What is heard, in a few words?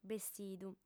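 "bestidu", female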